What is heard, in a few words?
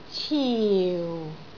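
"ciu4", Cantonese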